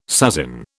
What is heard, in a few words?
"sasin", British